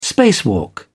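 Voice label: "spacewalk", English, British English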